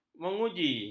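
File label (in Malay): "menguji", Malay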